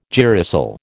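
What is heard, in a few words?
"girasol", American English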